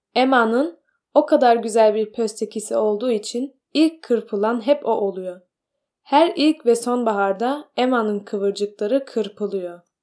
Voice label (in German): türkisch